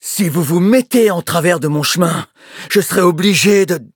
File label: battle, fr